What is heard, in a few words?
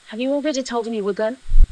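voice acting, es, female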